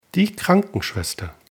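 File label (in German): "krankenschwester", German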